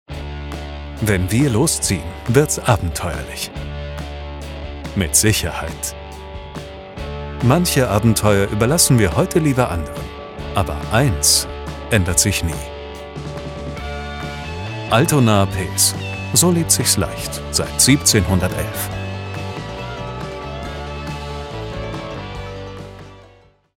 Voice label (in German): WERBUNG; Maennerfreundschaft